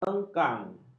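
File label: "engkang", Malay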